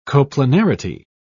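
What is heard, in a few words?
"coplanarity", British English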